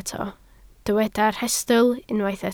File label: "rhestl", Welsh